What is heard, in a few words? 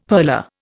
"purler", Indian; Dictionary